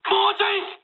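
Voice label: near waypoint mood high, speech japanese; War Thunder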